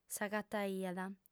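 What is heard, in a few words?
"s'agataiat", Sardinian female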